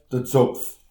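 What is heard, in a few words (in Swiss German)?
"zopf", Alsatian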